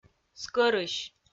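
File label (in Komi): "скӧрысь", Komi, female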